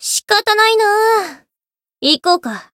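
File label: limitbreak, Japanese; neiria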